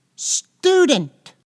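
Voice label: "student", English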